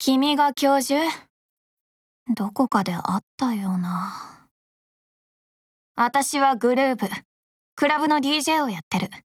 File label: GAIN JP; groove